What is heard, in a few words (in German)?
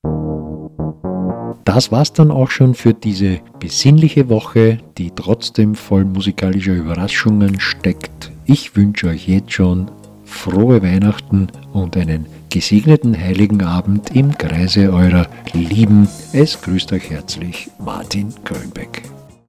Outro Ansage